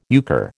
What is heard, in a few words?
"euchre", American